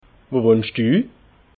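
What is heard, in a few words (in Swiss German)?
"wohnsch du", Alsatian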